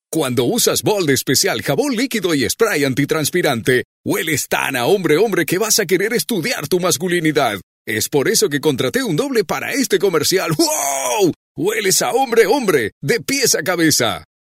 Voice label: locutor uruguay uruguayan voiceover